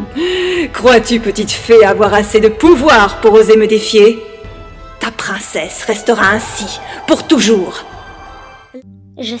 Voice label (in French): Voix vieille femme; VOIX NARRATION & CARTOONS; Extrait Voix vieille femme de la fontaine, Carabosse, créatures de la Cité